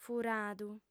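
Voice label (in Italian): "furadu", lingua sarda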